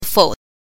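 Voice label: "fou", Mandarin Chinese, neutral tone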